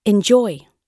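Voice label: "enjoy", British English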